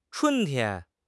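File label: "chun1 tian1", Mandarin Chinese; HSK3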